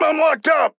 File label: Stunned Or Frozen; Viktor; paladins